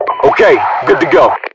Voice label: good to go, US voiceovers

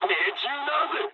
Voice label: bomb failure mood high, speech jp; War Thunder

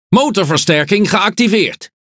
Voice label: NL Speech Consumable Forsage Start; World Of Warships

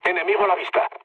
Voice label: enemy detected mood low; War Thunder

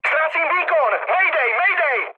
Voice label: damaged engine, speech cze, mood med; War Thunder

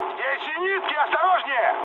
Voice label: damaged aa, mood med, speech ru; War Thunder

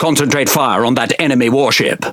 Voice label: Speech Quick Commands Enemy Ship, EN GB; World Of Warships

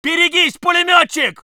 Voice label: voice sov infantry

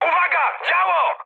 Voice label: damaged aa mood high, speech pol; War Thunder

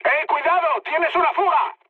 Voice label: damaged water, mood high, speech; War Thunder

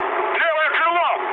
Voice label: left wing, mood med, speech ru; War Thunder

